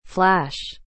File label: "flash", English